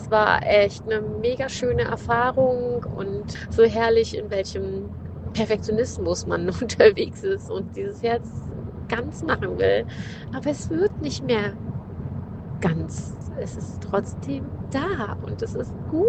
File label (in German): Testimonial; Herzritual